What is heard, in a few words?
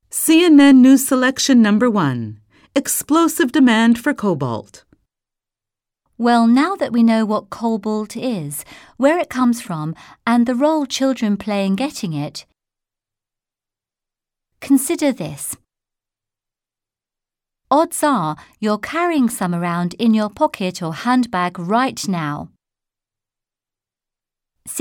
news, british english